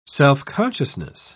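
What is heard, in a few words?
"self-consciousness", British English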